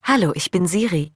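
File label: German, Germany; iOS Sounds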